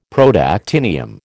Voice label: "Protactinium", American